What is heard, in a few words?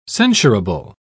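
"censurable", British English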